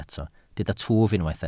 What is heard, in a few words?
"twf", Welsh